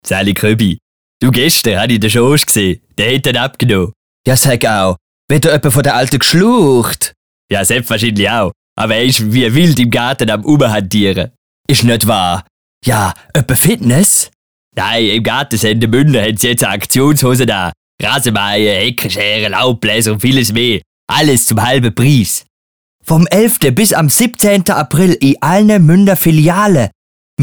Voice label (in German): Spot lustig